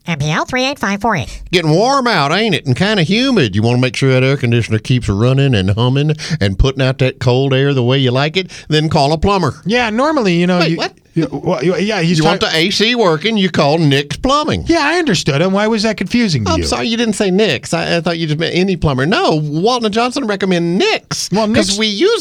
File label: RADIO AD; nicks plumbing radio ads